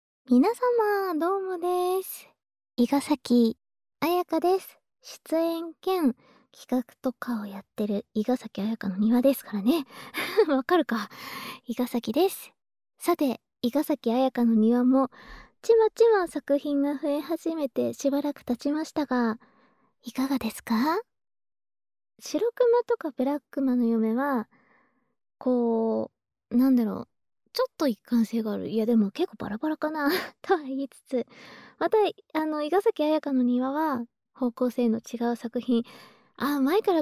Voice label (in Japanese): フリートーク; 保健委員のお姉ちゃん